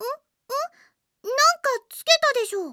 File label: device on, Japan Anime Girls; Windows; Bing; Nana